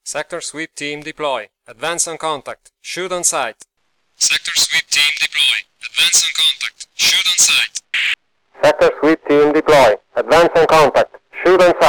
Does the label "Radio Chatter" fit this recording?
Yes